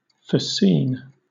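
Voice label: "fascine", British English; pronunciations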